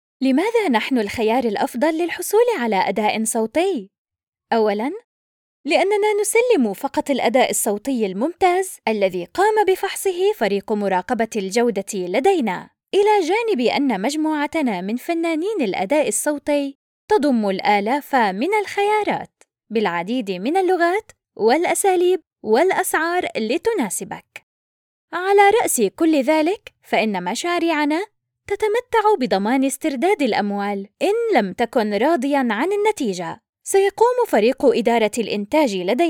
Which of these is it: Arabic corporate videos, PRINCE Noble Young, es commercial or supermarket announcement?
Arabic corporate videos